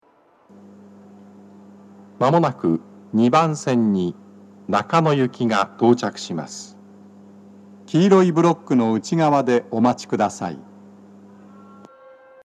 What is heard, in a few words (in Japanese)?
接近放送, 男声, BOSE天井型での収録です。; 2番線 中野・三鷹方面; 放送は「中野行き」です。